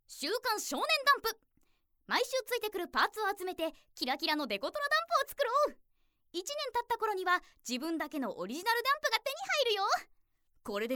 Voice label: narration